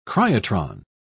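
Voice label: "cryotron", British English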